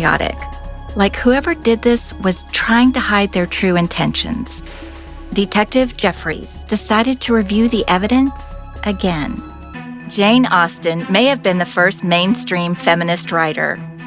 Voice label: voice over artist narration